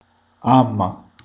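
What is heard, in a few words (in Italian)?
"gamba", Italian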